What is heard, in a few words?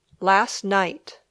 "last night", English